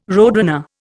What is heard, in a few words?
"roadrunner", Indian